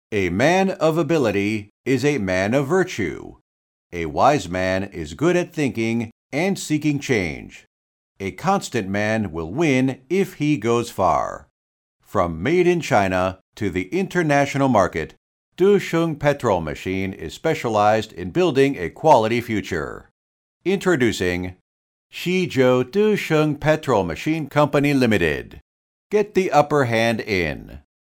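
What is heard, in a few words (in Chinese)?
外籍英语美式宣传片大气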